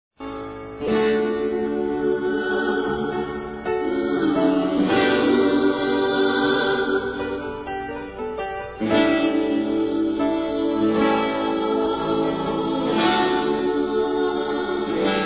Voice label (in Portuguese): Telemensagens Amor Fem; Telemensagens 2017